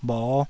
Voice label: "bho5", taiwanese Syllables